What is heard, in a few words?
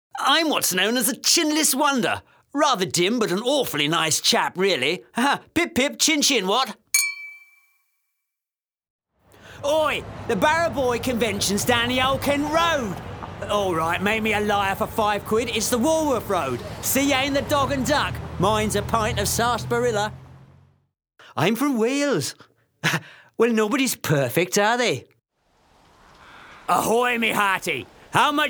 british english male